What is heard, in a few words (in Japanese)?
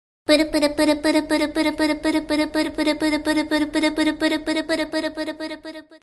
Denden Mushi 女性; One Piece